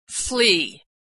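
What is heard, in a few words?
"flee", American English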